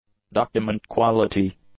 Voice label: "document-quality", English; adjectives